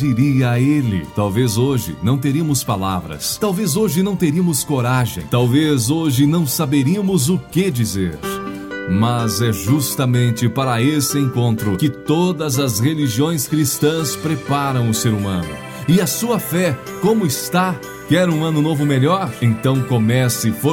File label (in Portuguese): mensagem natal masculina; Produções de Natal